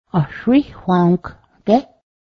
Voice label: did you hear, Cayuga; ahsrihwa̱hǫ́:k gę́h